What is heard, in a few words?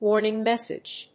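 "warning message", English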